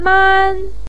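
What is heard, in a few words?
"man", Mandarin pinyin, tone 1; 嫚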